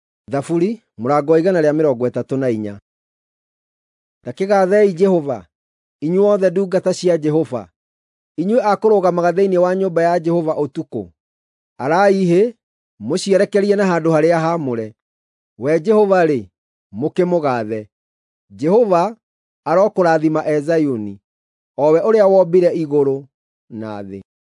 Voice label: psalms, gikuyu drama